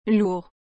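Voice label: "lourd", French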